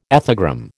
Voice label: "ethogram", American

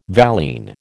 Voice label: "valine", American

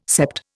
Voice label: "sept", Indian

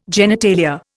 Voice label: "genitalia", Indian

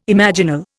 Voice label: "imaginal", Indian

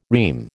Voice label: "REME", American

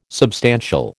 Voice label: "substantial", American

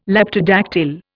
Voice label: "Leptodactyl", Indian

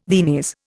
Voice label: "Viennese", Indian

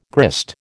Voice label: "grist", American

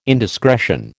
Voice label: "indiscretion", American

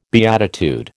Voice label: "beatitude", American

